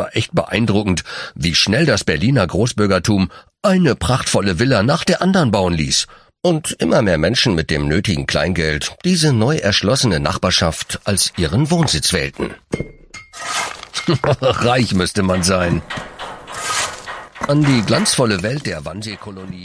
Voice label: Audioguide als Kutscher; Kolonie Alsen

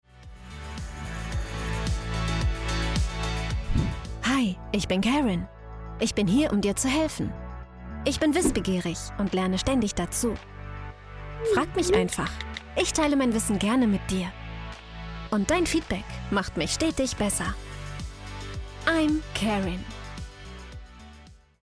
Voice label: Erklärfilm Chatbot

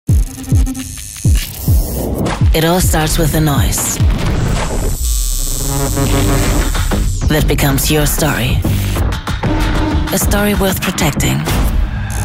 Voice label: Tattoo Anti Aging Pflegeserie TV; SKIN STORIES

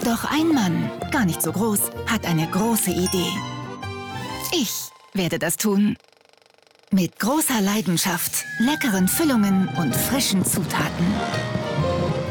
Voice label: Werbung Pasta; Rana Pasta

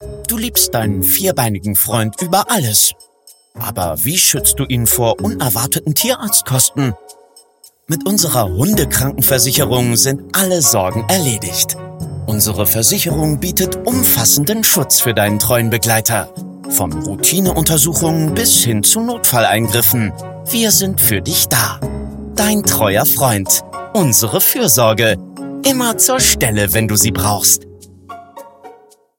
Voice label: Werbung Tierversicherung fuersorglich

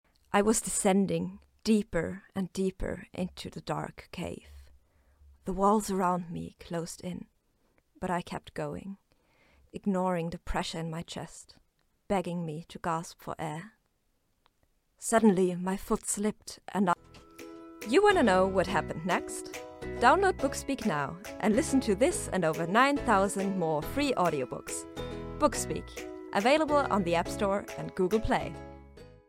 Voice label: Englisch Werbung Hoerbuecher

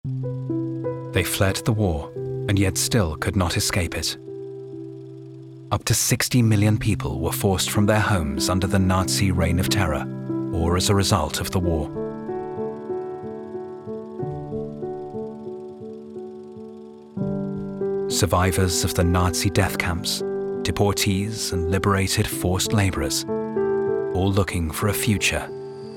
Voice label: Documentary Kommentar; Hitlers Displaced War Children

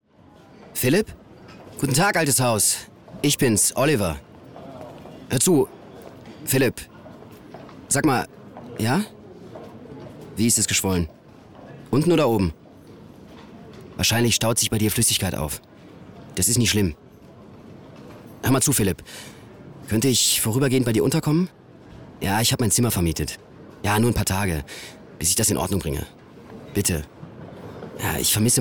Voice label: telefonat

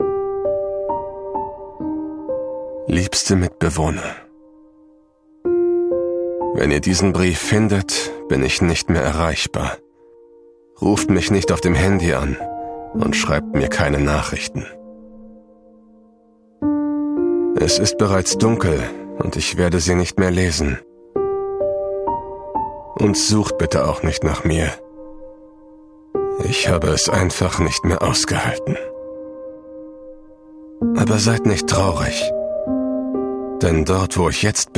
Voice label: Image Spot, Commercial (Werbung), German; Kino

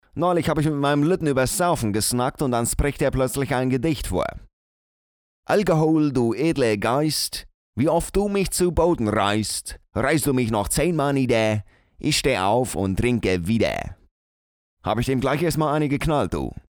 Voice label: dialekt norddeutsch; LOFT VOICES

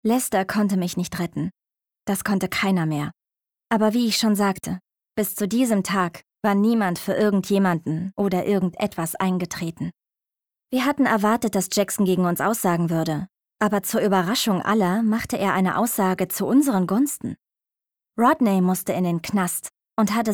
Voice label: erzaehlung

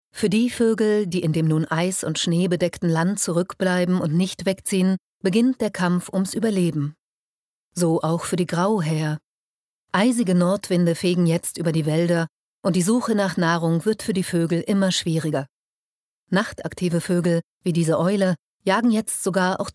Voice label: doku

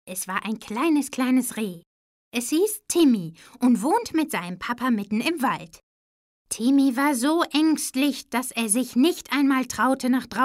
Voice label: kinder; balduin baer; das reh timmi

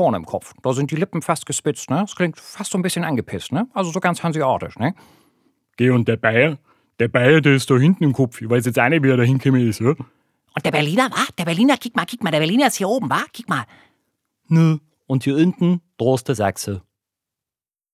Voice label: Dialekt

